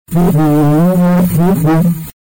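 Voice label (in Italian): "seguire", Italian; seguire mai morte lì!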